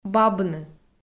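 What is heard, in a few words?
"бабны", Komi-Zyrian, female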